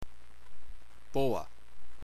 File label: "boga-fo", englisc